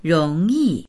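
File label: "rong2yi4", Mandarin Chinese; róngyì; 容易; 容易である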